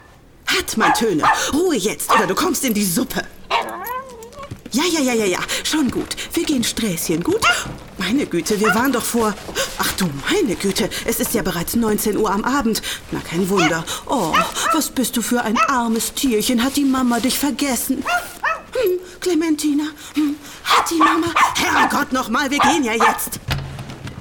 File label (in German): Hörspielrolle Affektierte Hundemama